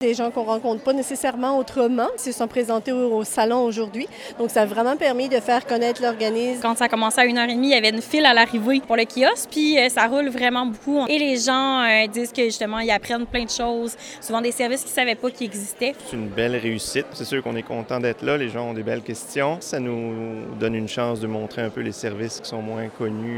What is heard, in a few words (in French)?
VOX POP; Franc succès pour le 1er Salon des exposants en santé et services sociaux